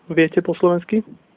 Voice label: do you speak, slovak; Viete po slovensky?